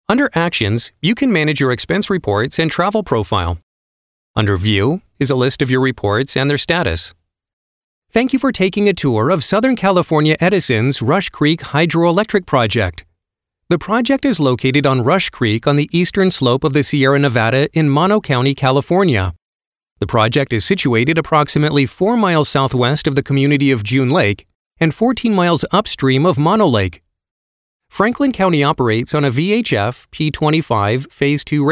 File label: elearning